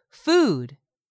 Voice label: "food", English; 3000 words